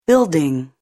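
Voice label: "building", English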